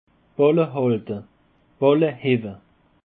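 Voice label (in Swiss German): "ballehalten", Alsatian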